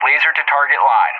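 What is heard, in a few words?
"LTL", English; JTAC; DCS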